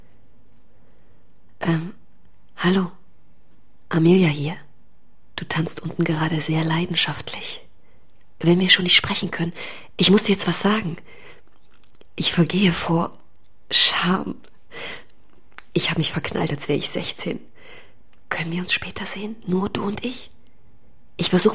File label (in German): Hoerspiel